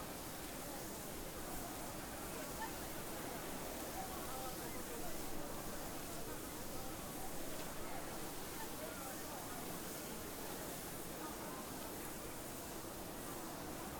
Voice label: outdoor wheatfield chatter